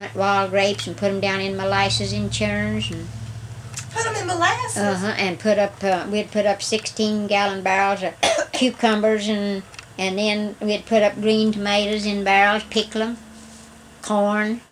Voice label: Conversation with 76 year old white female West Virginia, american english dialect